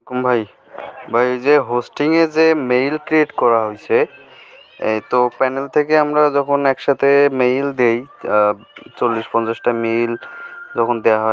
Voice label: voice message